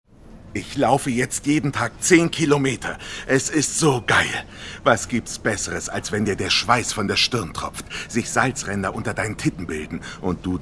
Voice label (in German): Sport Nein danke Synchron